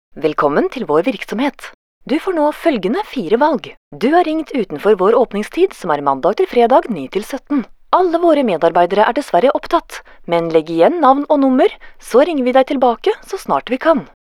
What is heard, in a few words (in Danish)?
norsk kvinde; formel